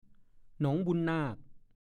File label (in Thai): "หนองบุนนาก", ภาษาโคราช; ชื่ออำเภอหนึ่งในจังหวัดนครราชสีมา